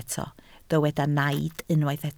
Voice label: "naid", Welsh

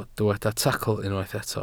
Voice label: "tacl", Welsh

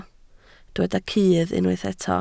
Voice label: "cudd", Welsh

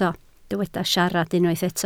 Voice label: "siarad", Welsh

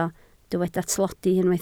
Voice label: "tlodi", Welsh

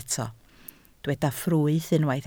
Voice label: "ffrwyth", Welsh

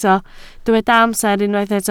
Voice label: "amser", Welsh

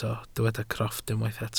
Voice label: "crofft", welsh

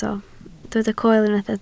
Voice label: "coel", Welsh

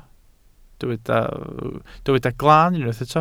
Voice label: "glan", Welsh

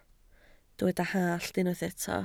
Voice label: "hallt", Welsh

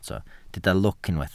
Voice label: "lwc", Welsh

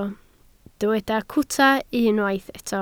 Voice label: "cwta", Welsh

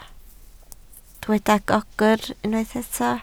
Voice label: "gogr", Welsh

